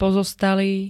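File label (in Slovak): "pozostalý", Slovenské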